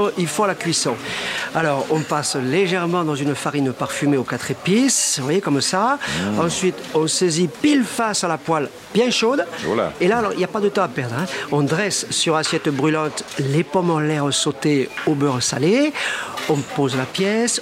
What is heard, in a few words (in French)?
PUB Chef cuisinier Accent du sud; FLO